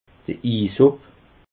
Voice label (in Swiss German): "isop", alsace